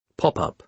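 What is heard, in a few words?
"pop-up", British English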